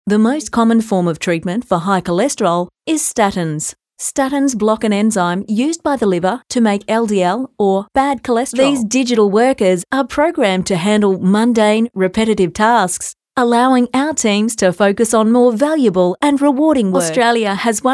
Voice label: Corporate